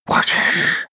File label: male whisper spell